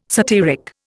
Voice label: "satyric", Indian